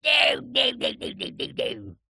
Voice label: cartoon male old jokey says no no no no no